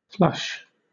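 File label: "flush", British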